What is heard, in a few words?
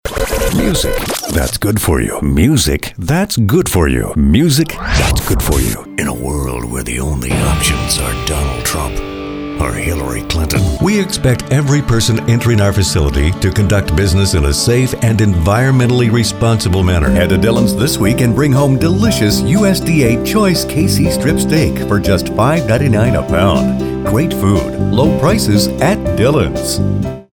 VOICEOVER